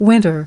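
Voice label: "Winter", English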